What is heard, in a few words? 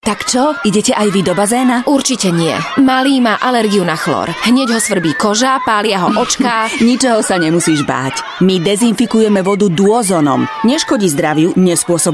radio spot; duozon